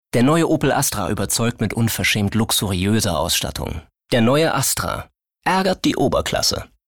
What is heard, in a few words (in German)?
CASTING Werbung